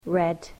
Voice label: "red", English

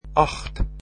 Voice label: "eight", dutch

